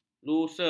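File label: "lusa", Malay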